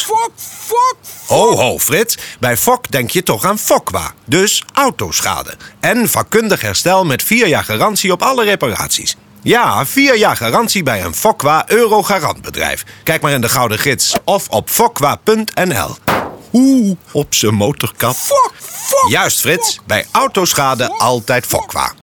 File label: commercials golf